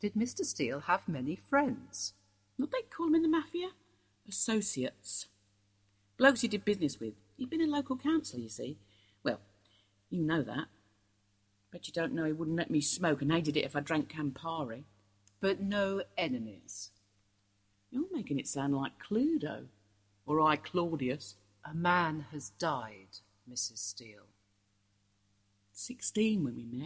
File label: radio play; The Apple of His Eye; Mrs Steele